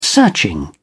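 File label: "searching", British English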